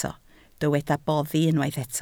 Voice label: "boddi", Welsh